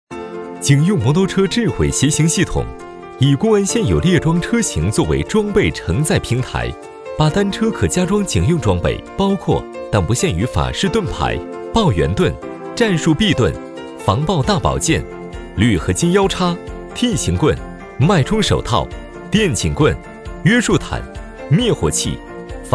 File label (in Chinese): 男 MG 稍活力; 警用摩托车智慧携行系统